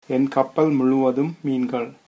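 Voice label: hovercraft, tamil, male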